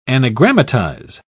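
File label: "anagrammatize", British English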